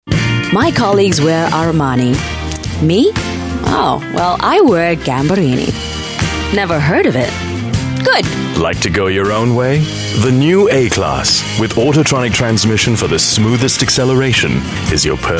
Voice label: Asian English Fashion; Merc

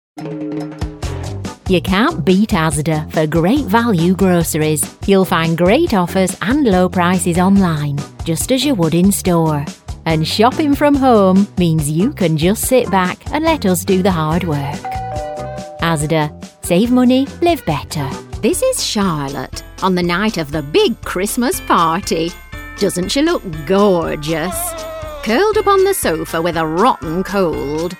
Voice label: UK English Commercial Reel

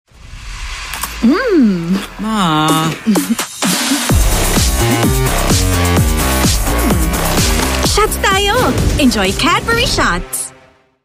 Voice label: Filipino; Cadburys Shots

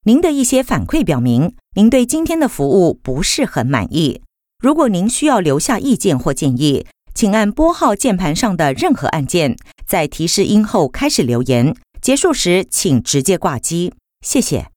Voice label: Mandarin IVR, Mandarin China Female; Etihad

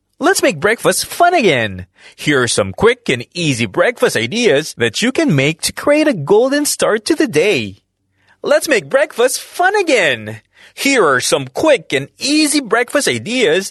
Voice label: Filipino English; Breakfast

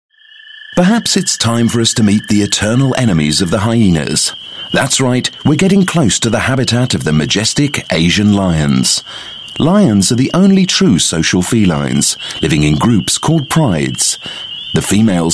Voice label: UK English Nature Guide, Male

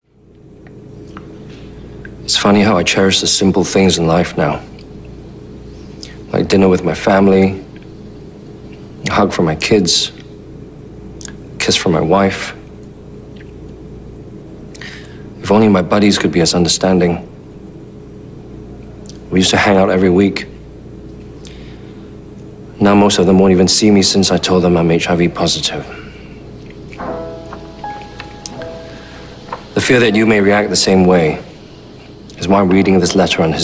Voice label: Asian English; Lets Be Positive About HIV

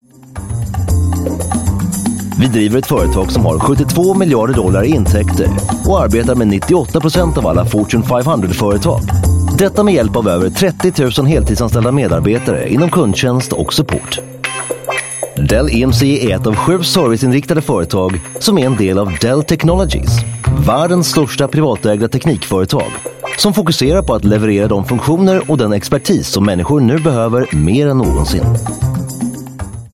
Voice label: Swedish Computers, Swedish Male; Dell

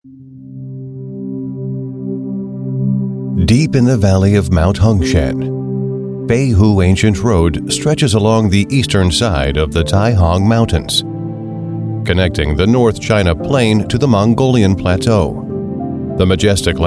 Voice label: US English; Chinadoc

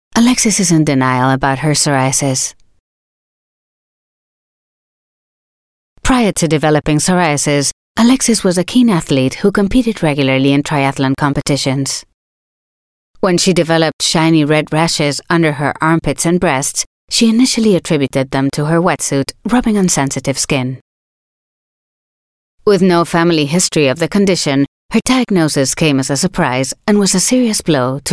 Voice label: European Spanish explainer video English

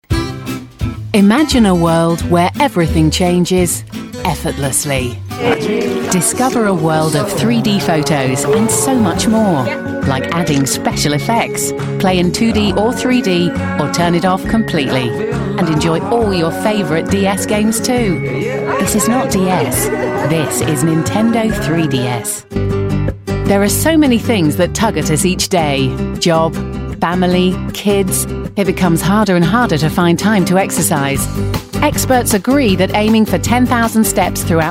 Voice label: UK English Warm and Friendly, Female

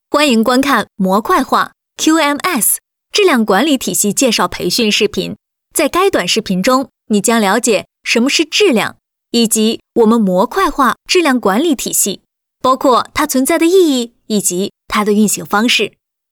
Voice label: Mandarin China Narration

